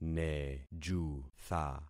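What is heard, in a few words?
"nejutha", concatenated syllables; Affixing experiment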